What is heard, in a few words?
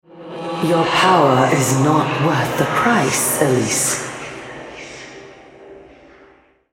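Female, American English; Elise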